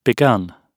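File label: "begun", British English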